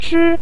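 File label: "chi" Mandarin Chinese, neutral tone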